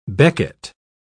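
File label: "becket", British English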